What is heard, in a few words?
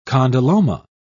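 "condyloma", British English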